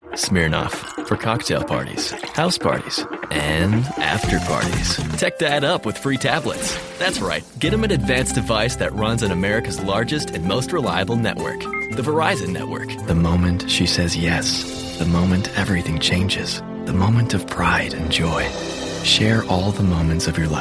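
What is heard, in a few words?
English US Commercial; GoLocalise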